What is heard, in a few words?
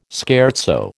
"scherzo", American